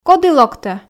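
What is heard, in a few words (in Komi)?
коді локтӧ, Komi, female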